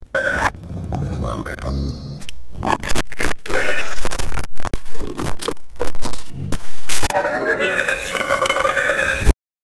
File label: try again, error